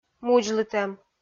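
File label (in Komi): "мудзлытӧм", Komi, female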